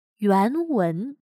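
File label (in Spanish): "原文", chino mandarin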